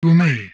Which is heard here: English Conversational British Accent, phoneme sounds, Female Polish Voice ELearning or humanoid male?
humanoid male